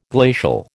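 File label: "glacial", American English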